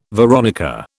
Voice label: "veronica", British